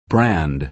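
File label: "brand", American English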